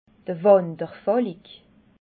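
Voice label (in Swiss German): "wanderfalik", Alsatian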